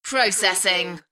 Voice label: UK female "Processing"; beanstalk audio